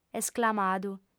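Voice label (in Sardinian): "esclamadu", female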